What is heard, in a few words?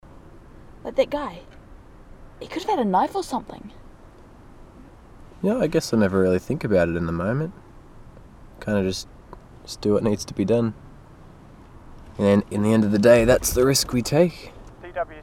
Conversational